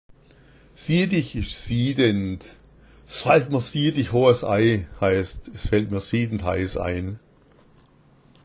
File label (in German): siadich, schwaebisch